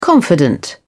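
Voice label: "confidant", British English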